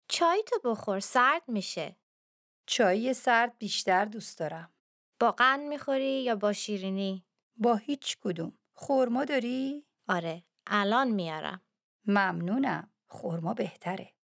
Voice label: Dialogue lesson Farsi